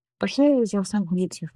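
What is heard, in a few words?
Voice Acting es, female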